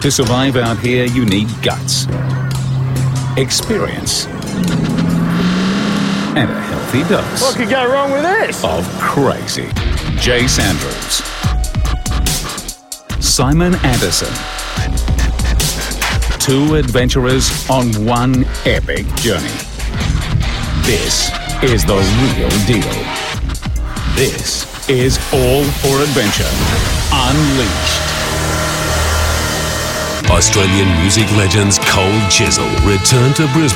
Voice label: Promos